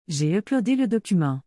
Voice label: "J'ai uploadé le document.", Québécois French; I uploaded the document.